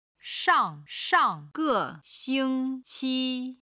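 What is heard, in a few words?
"shangshangge xingqi", Chinese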